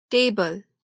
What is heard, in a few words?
"table", English; grade1; sounds and words